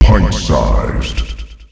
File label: Pint sized, announcer male; Unreal Tournament; ut2004